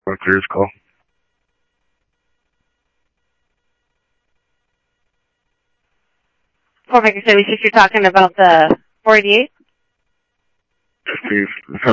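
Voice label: Simulcast police department, scanner audio trunk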